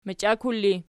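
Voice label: "machiakul" ergative, Archi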